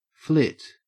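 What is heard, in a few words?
"flit", Australian English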